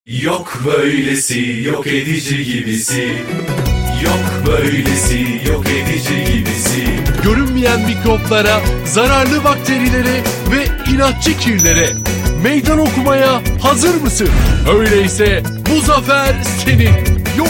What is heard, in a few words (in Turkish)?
kimya yok edici radyo spotu; begimgil